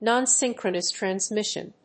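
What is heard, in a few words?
"Non-synchronous transmission", English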